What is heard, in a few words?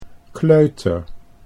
"kleuter", Dutch